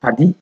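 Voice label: "paddy", Nigerian Pidgin, male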